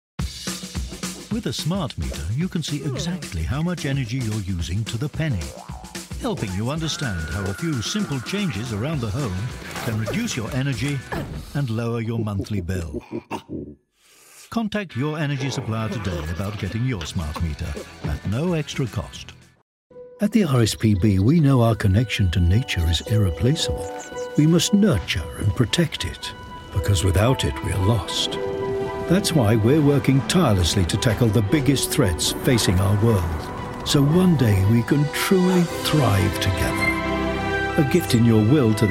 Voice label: Commercial